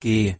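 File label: "g" German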